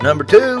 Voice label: number two male; numbers and letters